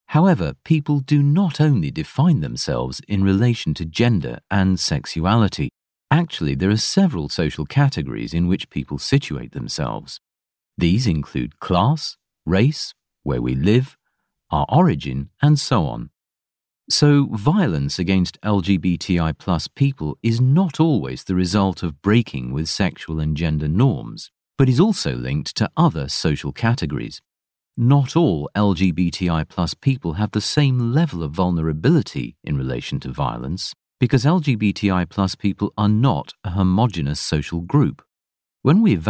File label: Explainer; LGBTQI